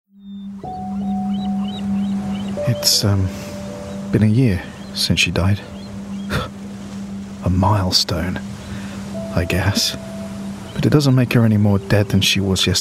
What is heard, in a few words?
Gaming Character